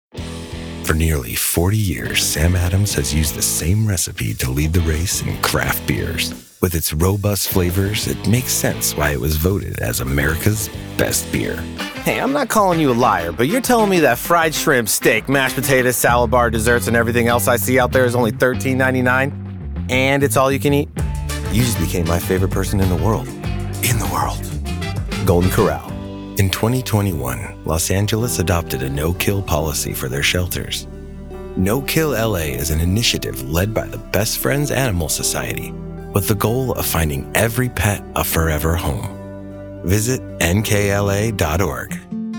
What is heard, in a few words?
Commercial, Voice Actor